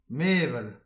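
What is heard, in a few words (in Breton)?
"mevel", Breton; petit precis de prononciation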